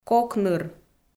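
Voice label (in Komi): "кок ныр", Komi, female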